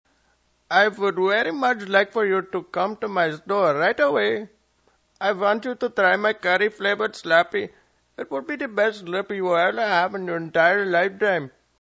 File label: Indian